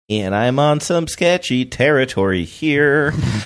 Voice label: saying and im on some sketchy territory here sing songy